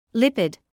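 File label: "lipid", British English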